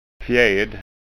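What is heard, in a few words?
"fjed", Scanian dialect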